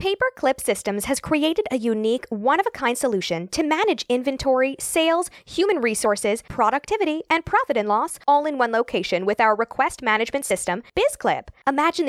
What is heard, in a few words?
Commercial Free Offer; Paperclip Systems